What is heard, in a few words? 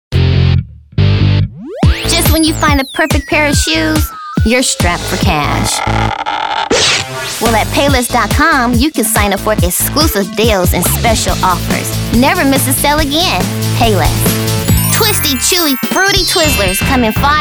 Commercial